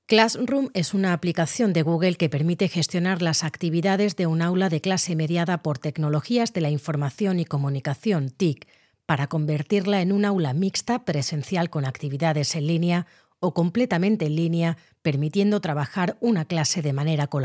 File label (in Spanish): Tutorial